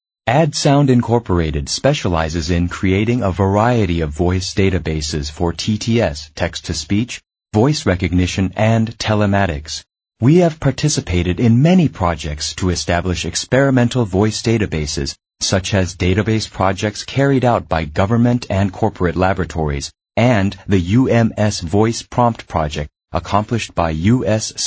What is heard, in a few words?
American English, M